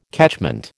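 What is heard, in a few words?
"catchment", American English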